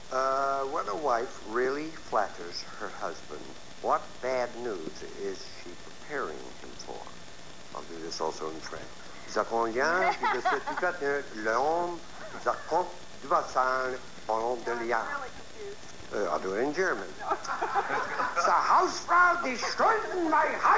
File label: german